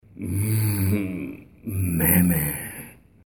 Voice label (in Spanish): sexy